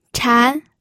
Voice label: "chan2", pinyin

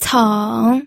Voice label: "ceng3", pinyin

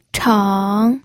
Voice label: "cheng3", pinyin

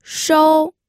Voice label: "shou1", pinyin

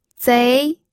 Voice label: "zei2", pinyin

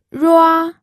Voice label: "rua1", pinyin

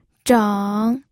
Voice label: "zheng3", pinyin

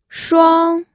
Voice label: "shuang1", pinyin

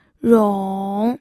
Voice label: "rong3", pinyin

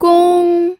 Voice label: "gong1", pinyin audio

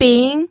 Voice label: "bing2", pinyin

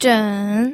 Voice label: "zhen3", pinyin

